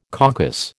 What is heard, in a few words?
"coccus", American